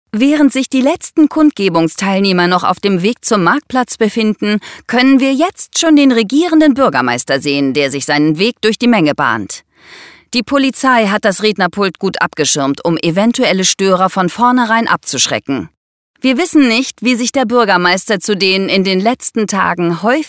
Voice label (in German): Kommentar Nachrichten